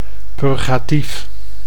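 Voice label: "purgatief", Dutch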